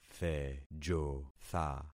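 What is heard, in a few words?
"thejotha", concatenated syllables; Affixing experiment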